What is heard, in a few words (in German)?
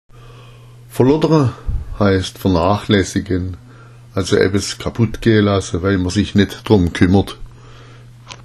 "verlottera", schwaebisch